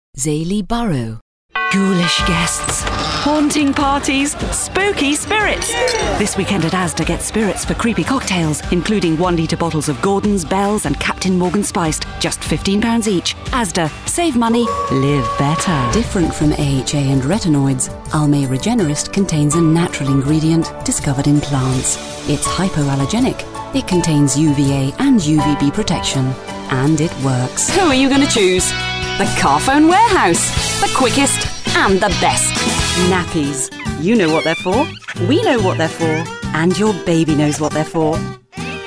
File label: commercial